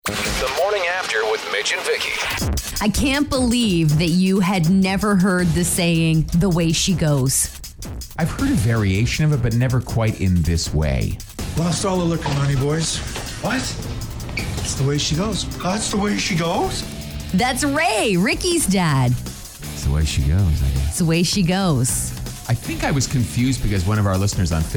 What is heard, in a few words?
Morning Show Promo; ROCK